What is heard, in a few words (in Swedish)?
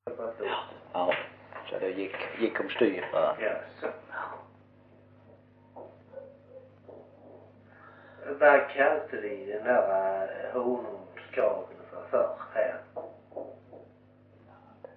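Osby Skåne, dialekter; Folkminnen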